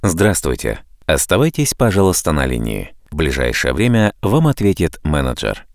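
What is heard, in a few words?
working hours IVR male; Rabochee vremya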